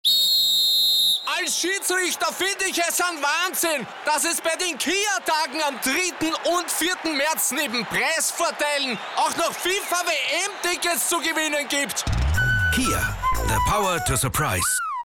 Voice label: Dialekt Oesterreichisch

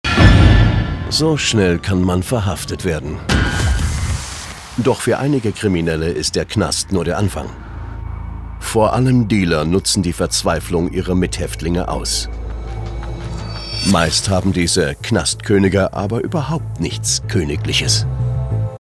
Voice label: Neutral

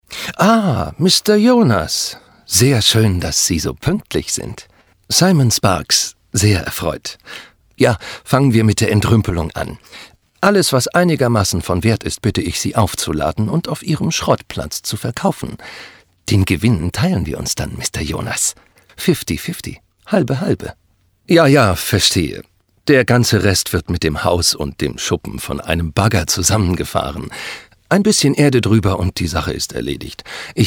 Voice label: Fremdtake Elegant

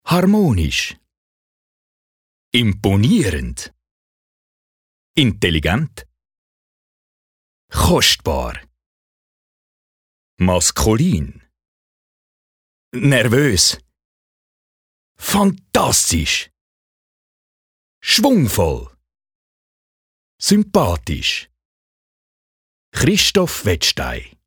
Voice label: Schwiizerduetsch

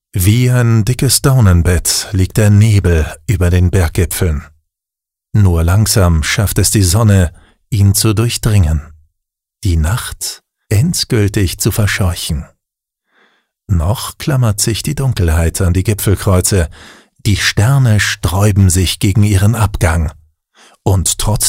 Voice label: Schauspiel Sanft

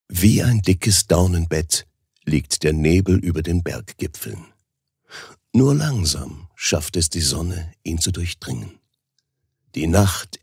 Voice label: Schauspiel Variabel

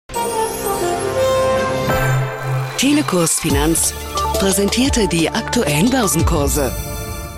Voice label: Werbung Neutral

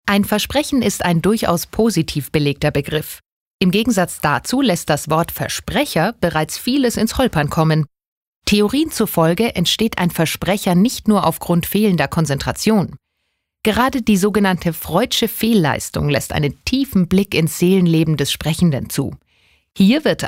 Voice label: Versprecher Freundlich

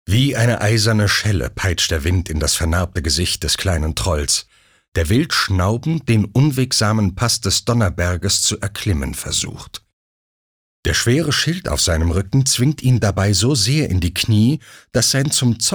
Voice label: Neutral

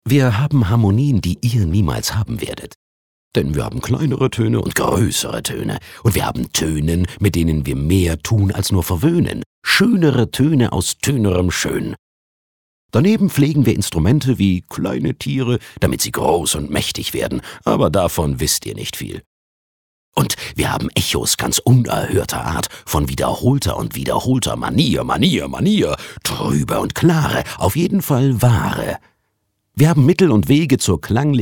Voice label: Kommentar Freundlich

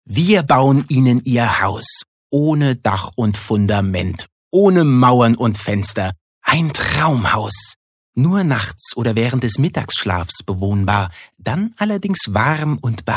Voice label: Werbung, Freundlich

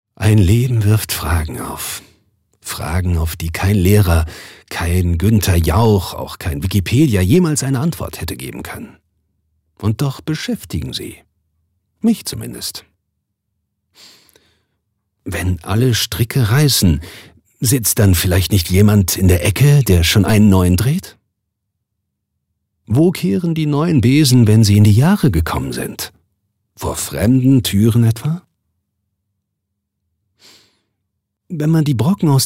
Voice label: Schauspiel, Neutral

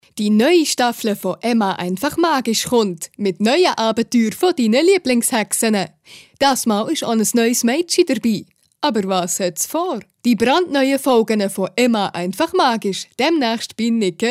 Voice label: CH-D W Fremdtake Schwiitzerduetsch

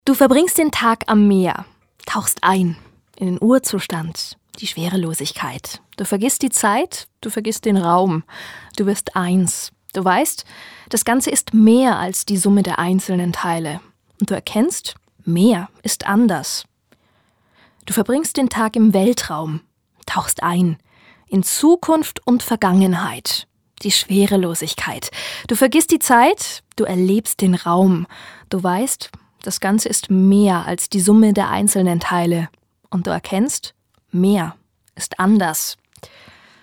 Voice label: Schauspiel Neutral

